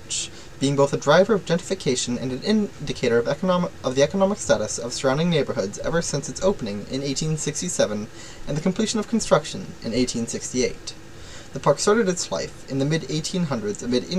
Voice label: Spanish Midterm Audio; Spanish 105 Midterm